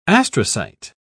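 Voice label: "astrocyte", British English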